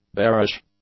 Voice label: "bearish", English; adjectives